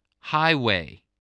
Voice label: "highway", English, spelling